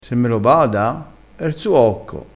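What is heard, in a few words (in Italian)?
"cocco", dialettale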